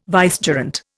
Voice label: "vicegerent", Indian